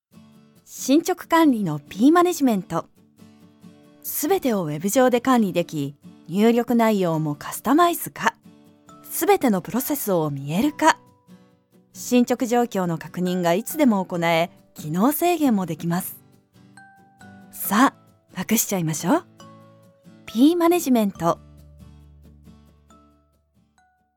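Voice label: Japanese Web CM How to; GoLocalise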